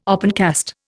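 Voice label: "opencast", Indian English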